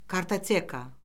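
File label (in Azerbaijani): "kartoteka", Azerbaijani; Orfoqrafiya Lüğəti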